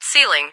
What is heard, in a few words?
Cloud Ceiling, ATIS